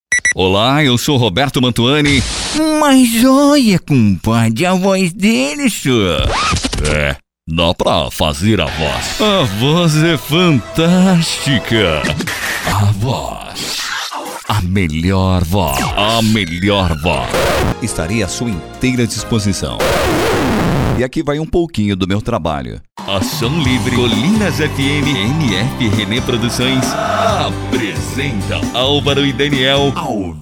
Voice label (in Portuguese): Locutor, vozes masculina